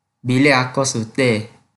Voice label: Kelantan-Pattani Malay